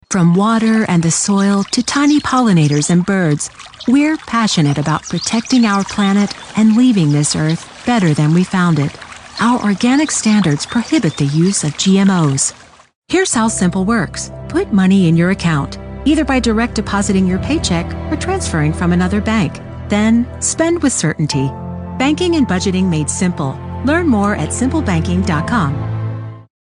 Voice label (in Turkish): Kadın, İngilizce (Amerikan), Kurumsal, Reklam, Eğitim